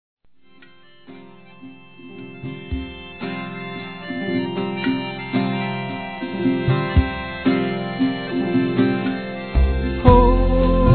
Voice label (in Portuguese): Noivado Masculino; Telemensagens; Parabenização